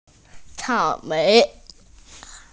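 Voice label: "cao3mei2", Mandarin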